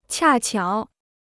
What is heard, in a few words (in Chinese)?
"恰巧", Chinese